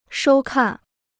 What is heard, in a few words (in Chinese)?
"收看", Chinese